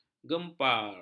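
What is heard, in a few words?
"gempar", Malay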